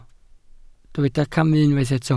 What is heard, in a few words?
"camu", welsh